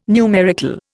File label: "numerical", Indian